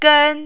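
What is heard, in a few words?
"gen", Mandarin, tone 1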